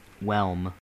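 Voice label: "whelm" English, US; pronunciations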